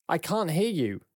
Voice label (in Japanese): アメリカ人男性 サンプル